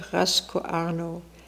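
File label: "Rascooarno", pronunciations; Fourth Way; Beelzebub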